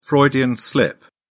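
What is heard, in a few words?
"freudian slip", British English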